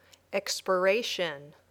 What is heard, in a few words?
"expiration", English; expire